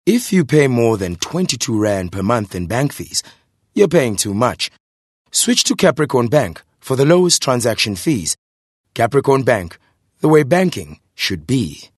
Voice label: English Corporate